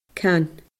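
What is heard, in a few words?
"can", Scottish Gaelic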